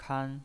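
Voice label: "kan", first tone, pinyin voice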